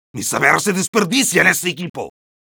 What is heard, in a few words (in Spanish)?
Medic jeers, es